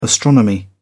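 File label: "astronomy", British English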